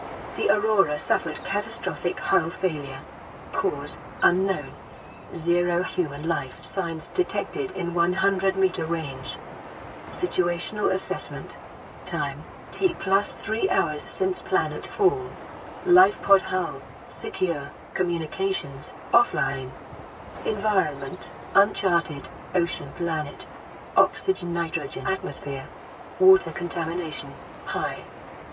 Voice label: AI Speech